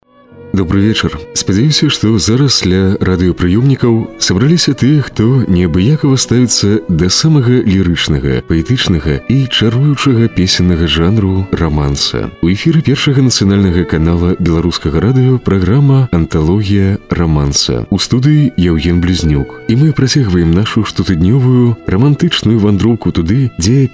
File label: Belarusian male